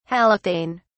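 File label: "halothane", British English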